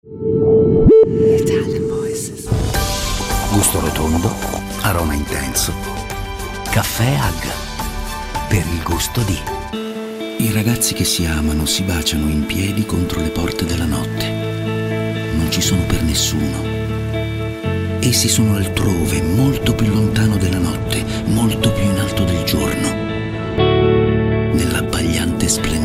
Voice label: ITALIAN VOICES Smooth Storyteling